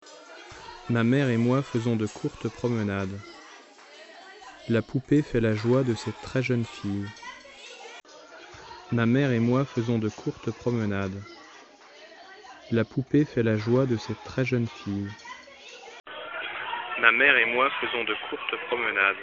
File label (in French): cantine voix HD